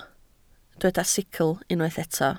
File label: "sicl", Welsh